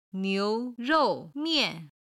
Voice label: "niu2 rou4 mian4", Chinese; 蘭州ラーメン; 上海

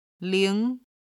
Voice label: "ling2", Chinese

